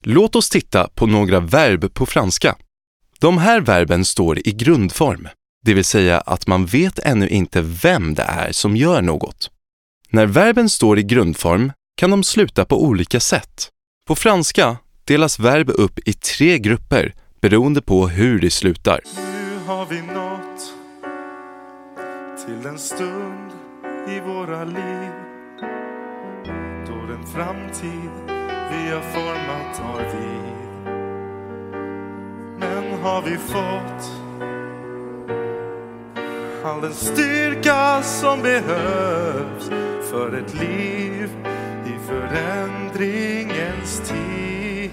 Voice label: SWEDISH VOICE